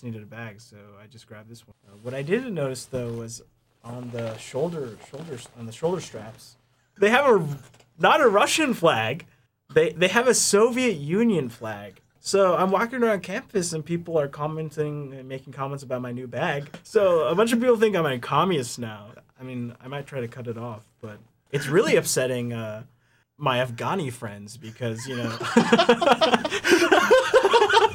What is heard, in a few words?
backpack Convo